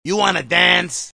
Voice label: you wanna dance, male spoken word; MALE MISC

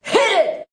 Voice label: "hit it", Voice; single hits

